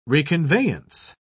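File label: "reconveyance", British English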